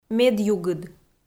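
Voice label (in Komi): "медъюгыд", Komi, female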